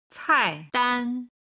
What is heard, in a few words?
"cai4dan1", Chinese